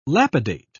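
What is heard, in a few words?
"lapidate", British English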